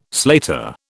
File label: "slater", British